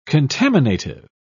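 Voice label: "contaminative", British English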